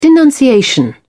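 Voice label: "denunciation", British English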